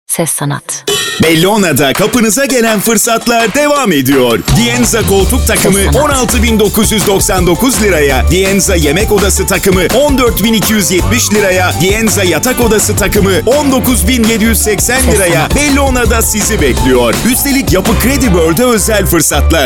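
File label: Turkish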